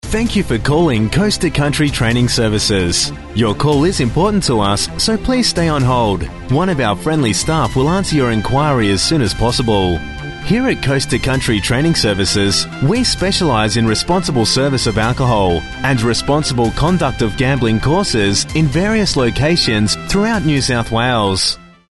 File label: AU Male Voice Talent Phone